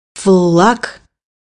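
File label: "flag", Russian; bukvar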